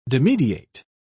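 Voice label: "dimidiate", British English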